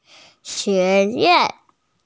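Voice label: "xue2yuan4" Mandarin Chinese, female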